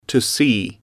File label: "to see", English